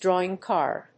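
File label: "drawing card", English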